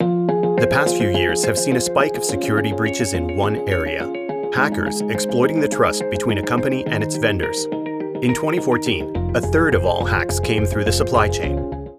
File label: English; ATLAS VAULT